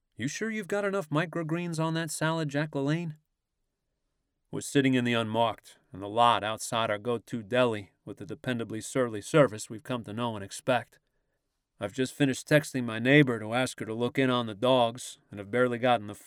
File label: Accent New York Standard; Audiobook Narrator